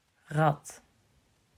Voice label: "Rad", Dutch

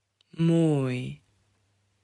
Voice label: "Mooi", Dutch

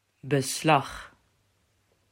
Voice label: "Beslag", Dutch

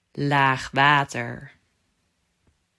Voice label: "Laagwater", Dutch

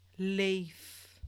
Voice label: "leef", Dutch; klankstabiliteit

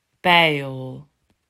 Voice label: "Pijl", Dutch

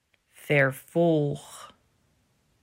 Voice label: "Vervolg", Dutch

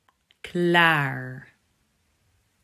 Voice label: "Klaar", Dutch

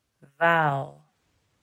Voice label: "Waal", Dutch

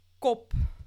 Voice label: "kop", Dutch; klankstabiliteit

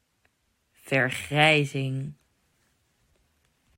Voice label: "Vergrijzing", Dutch

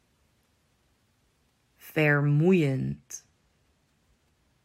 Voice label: "Vermoeiend", Dutch

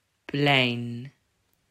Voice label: "Plein", Dutch

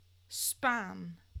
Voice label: "spaan", Dutch; klankstabiliteit

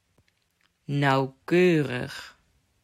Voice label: "Nauwkeurig", Dutch

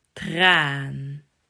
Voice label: "Traan", Dutch

